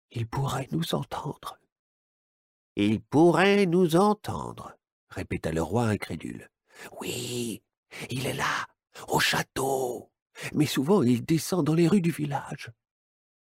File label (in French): NARRATION ET PERSONNAGES; le roi Dagaubert le dragon gascon; Little Urban